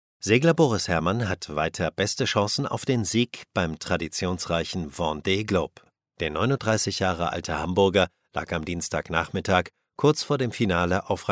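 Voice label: German male voice over, narrative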